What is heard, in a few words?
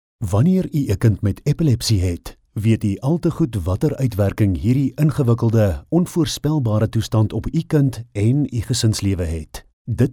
male afrikaans voice over narrative